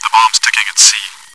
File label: chitchat; svencoop